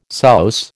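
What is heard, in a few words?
"souse", American English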